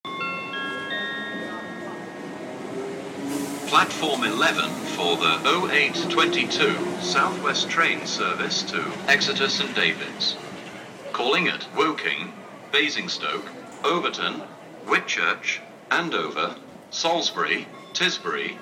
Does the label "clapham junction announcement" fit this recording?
Yes